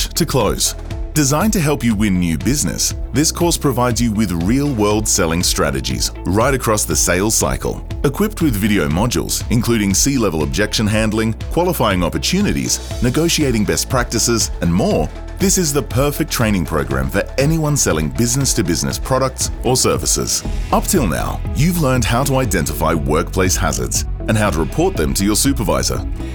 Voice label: E learning